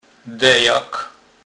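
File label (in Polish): "dejak", Kurpie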